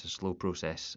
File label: Scottish